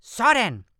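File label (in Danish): "sådan", Voices; Trafalgar; Quarry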